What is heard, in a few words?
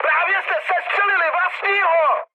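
player killed ally, Czech, mood high; speech; War Thunder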